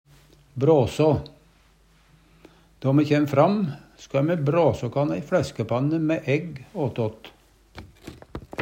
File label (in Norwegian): brasa, Numedalsmål; Verb; Hushald, mat, drikke